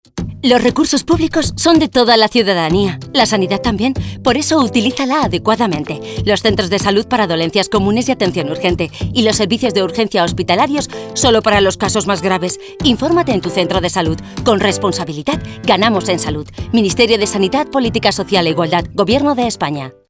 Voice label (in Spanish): SANIDAD RADIO CAST; campanas; serviciosSanitarios